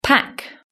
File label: "pack", British English